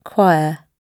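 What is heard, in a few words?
"choir" British English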